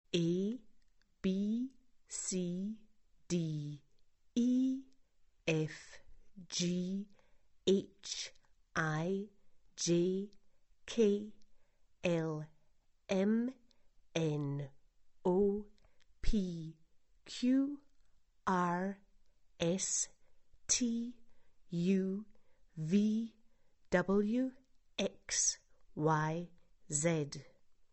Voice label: slow